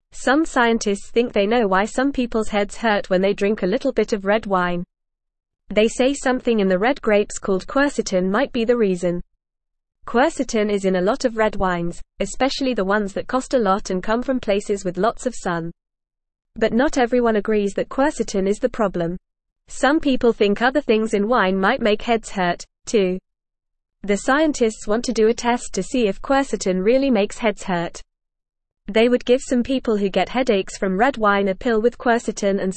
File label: English Newsroom Beginner FAST Reading; Why Does Red Wine Give Some People Headaches